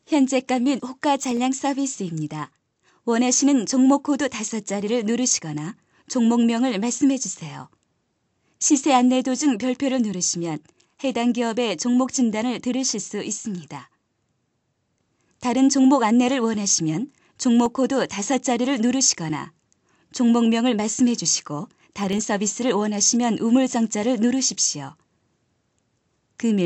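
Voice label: Korean female; ARS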